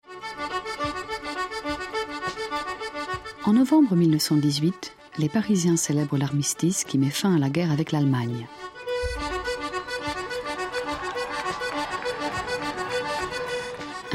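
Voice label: French audiobook; sprecher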